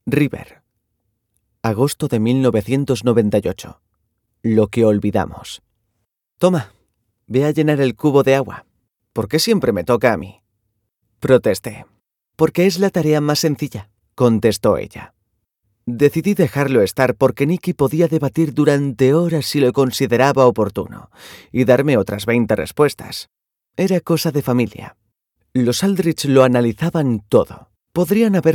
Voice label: spanish audiobooks

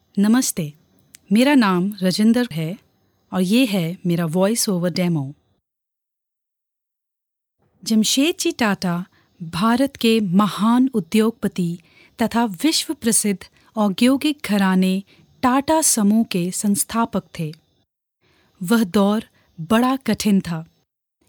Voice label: hindi commercial

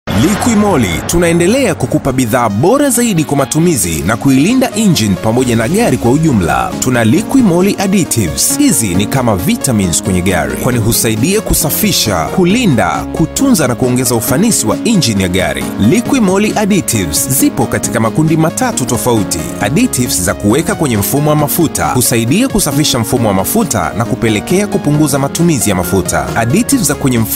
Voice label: swahili automotive

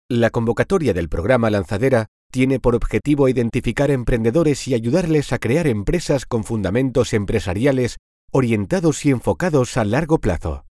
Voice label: spanish political ads

